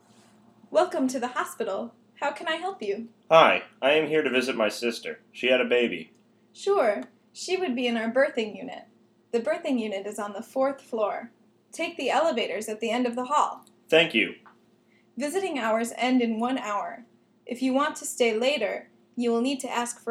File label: Information Desk Dialogue